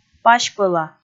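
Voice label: "пась пӧла", Komi, male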